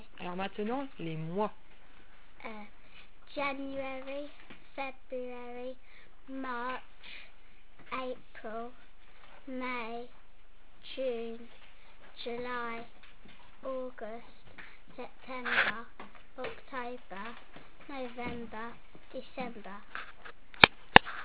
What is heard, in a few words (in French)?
mois en anglais